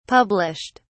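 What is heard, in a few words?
"published", British English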